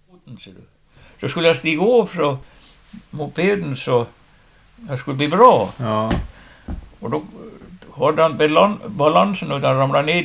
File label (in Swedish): St Tuna, dialekter; Svenska sagor; DAL; Folkminnen